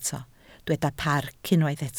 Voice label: "parc", Welsh

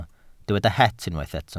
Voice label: "het", Welsh

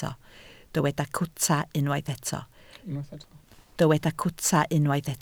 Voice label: "cwta", Welsh

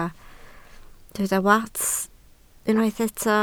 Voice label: "wats", Welsh

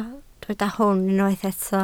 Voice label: "hwn", Welsh

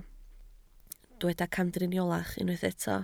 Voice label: "camdriniolach", Welsh

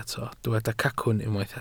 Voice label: "cacwn", Welsh

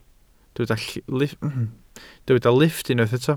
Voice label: "lifft", Welsh

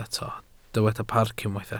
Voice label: "parc", Welsh

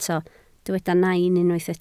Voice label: "nain", Welsh

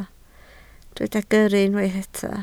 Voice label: "gyrru", Welsh

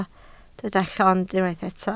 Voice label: "llond", Welsh

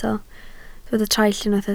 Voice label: "traill", Welsh

